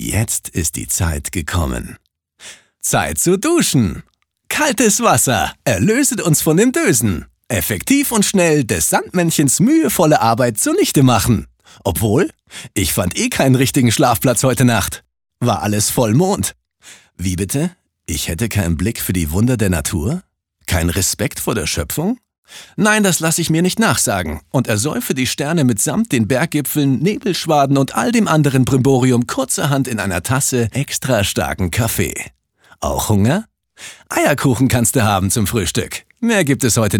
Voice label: Schauspiel Variabel

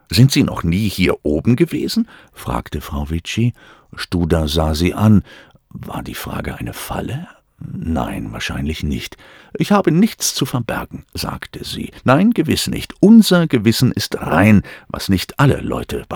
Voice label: Geheimnisvoll

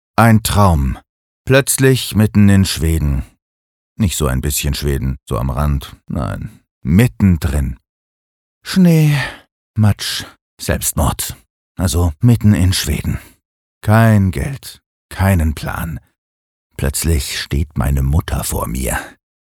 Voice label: Schauspiel Neutral

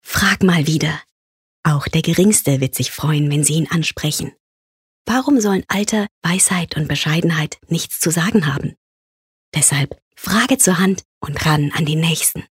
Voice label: Werbung, Sanft

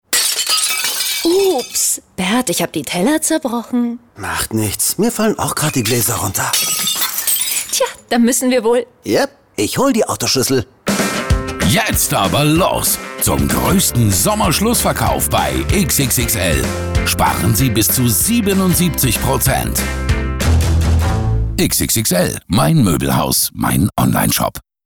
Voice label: Fremdtake Dynamisch

